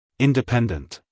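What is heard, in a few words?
"independent", American English, male; everyone-can-use-english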